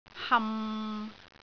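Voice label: "ham6", Cantonese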